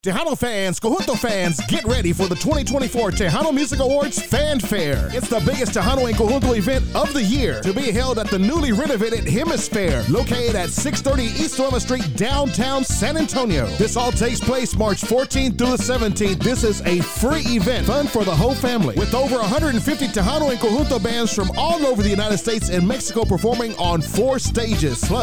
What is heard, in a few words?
radio spot; TMA FanFair; Hemisfair